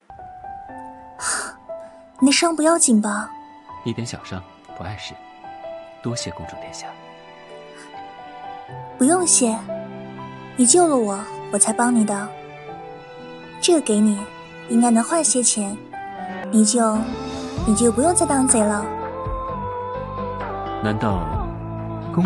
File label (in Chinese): 游戏配音